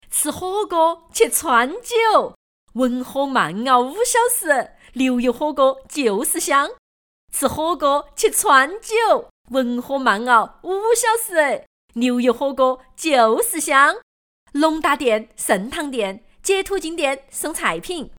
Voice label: 吃火锅 川话

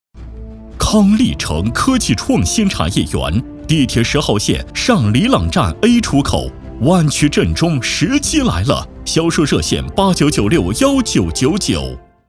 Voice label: 激情地产; 康利城

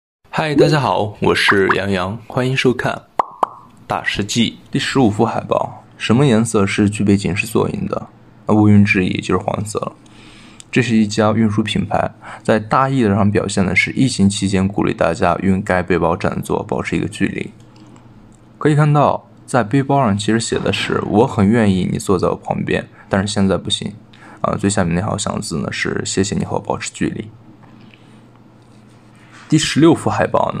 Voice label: 海报解说

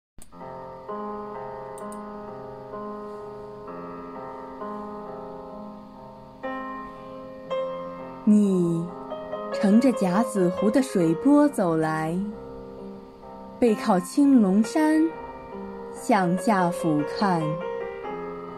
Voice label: 朗诵

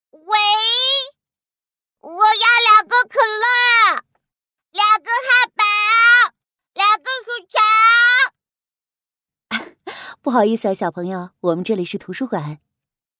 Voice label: 小屁孩儿

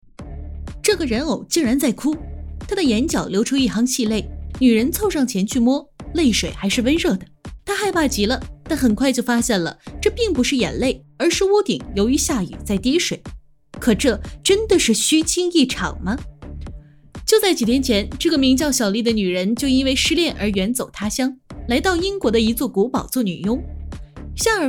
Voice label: 电影解说 成熟 女

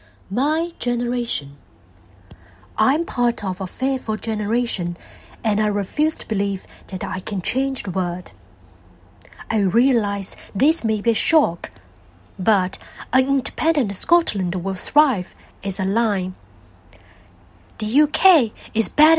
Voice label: 英文配音

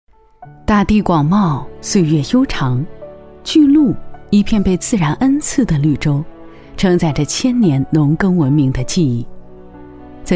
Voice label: 纪录片故事感讲述

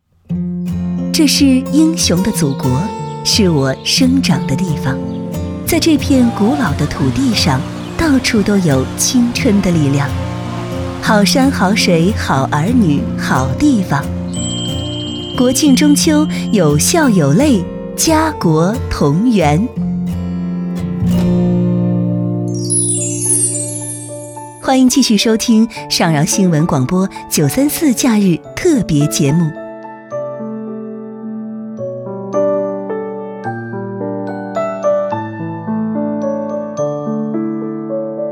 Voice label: 国庆中秋假期宣传

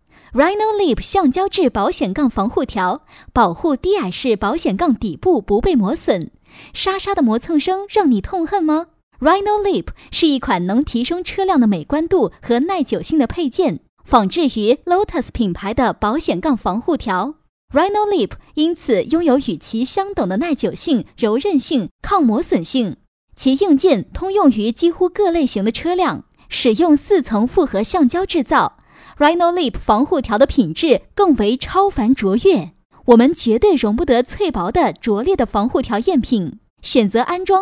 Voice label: 产品介绍 样音

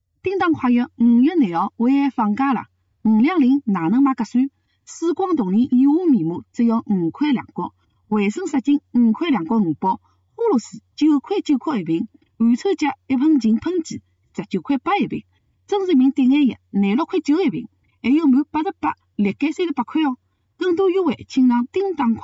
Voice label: 上海话信息流广告

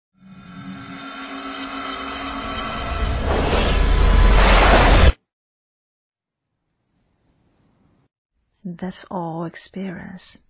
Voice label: 英语 身临其境 啤酒 广告; 至纯

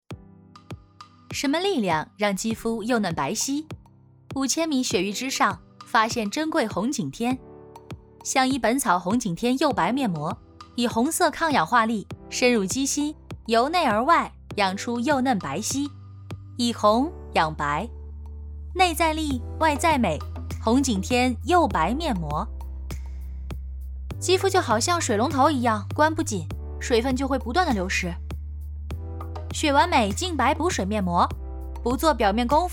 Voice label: 美妆广告 两种音态

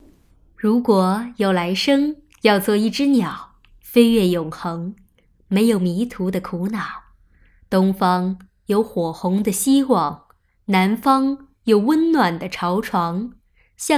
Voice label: 情感类

